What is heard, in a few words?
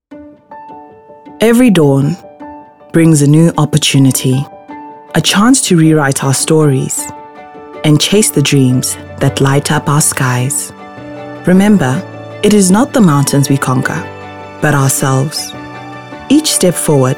INSPIRATIONAL